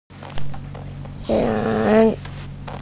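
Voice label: "ran2", Mandarin Chinese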